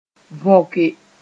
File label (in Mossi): "võke", moore